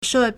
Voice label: "she4", Chinese

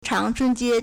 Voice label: "chang2chun1jie1", Chinese

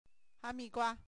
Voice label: "hamigua", Chinese

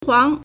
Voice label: "huáng", Chinese

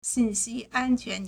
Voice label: "xin4xi1an1quan2", Chinese

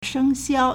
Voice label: "sheng1xiao4", Chinese

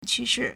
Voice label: "qu1shi4", Chinese

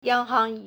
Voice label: "yang1hang2", Chinese; 央行 yāng háng; proper noun; People's Bank of China

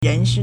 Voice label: "ren2 shi4", Chinese

